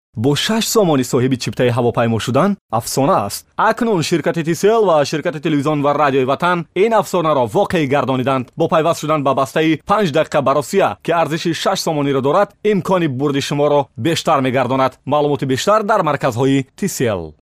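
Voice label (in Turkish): Tacikce Erkek, Tajik male voice; tacikce seslendirme; erkek sesler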